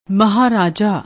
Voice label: "maharaja", Punjabi; ਮਹਾਰਾਜਾ

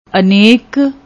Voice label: "anek", Punjabi; ਅਨੇਕ

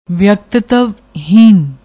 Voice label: "vekatitiveheen", Punjabi; ਵਿਅਕਤਿਤਵਹੀਨ

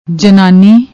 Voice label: "jannani", Punjabi

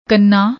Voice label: "kanna", Punjabi; ਕੰਨਾ

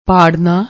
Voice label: "parna", Punjabi

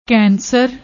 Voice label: "kanser", Punjabi; ਕੈਨਸਰ

